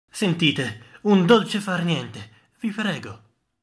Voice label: ITALIAN